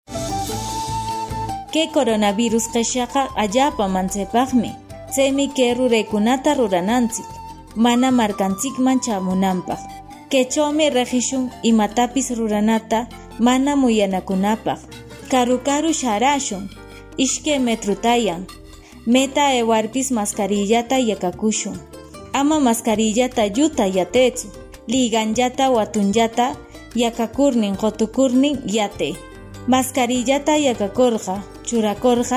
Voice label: quechua ancash uso de mascarillas 1 voz; medidas de prevencion; spot de radio

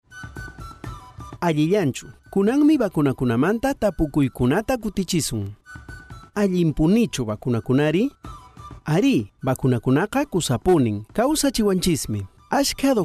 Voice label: quechua cusco collao microprograma preguntas y respuestas, spot de radio; microprograma 1; vacuna contra el coronavirus

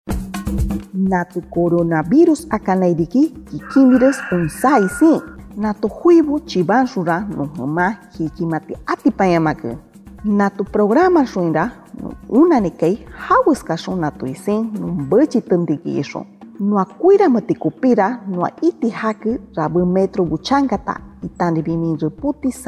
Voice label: shipibo konibo uso de mascarillas 1 voz, spot de radio; medidas de prevencion

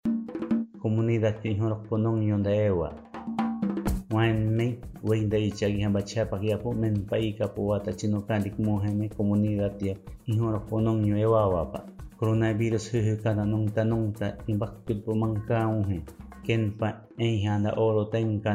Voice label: harakbut traslados fuera de la comunidad, spot de radio; precauciones al salir de mi comunidad

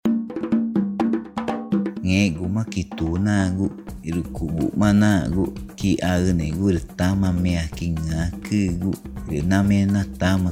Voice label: ticuna, spot de radio; en caso de presentar sintomas; sospecha o confirmacion de contagio

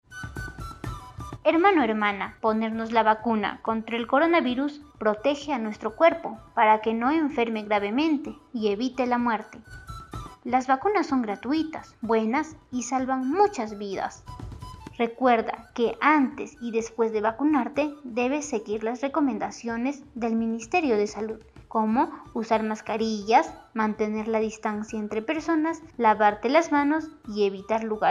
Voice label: castellano localidades andinas; las vacunas contra el coronavirus son buenas

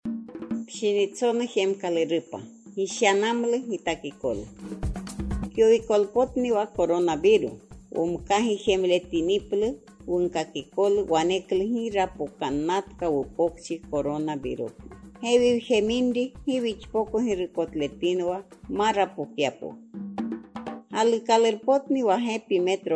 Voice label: yine uso de mascarilla 1 voz, spot de radio; medidas de prevencion

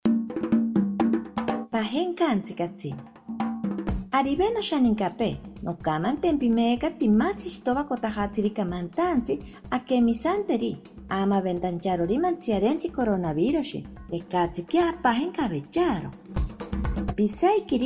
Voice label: ashaninka, spot de radio; nuevas medidas alto; precauciones al salir de mi comunidad

